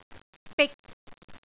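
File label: "bik3", Cantonese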